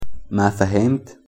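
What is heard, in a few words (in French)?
comprends pas, Arabic pronunciation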